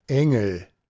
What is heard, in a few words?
"engel", Anglo Saxon